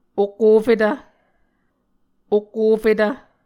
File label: "okofetv", Muscogee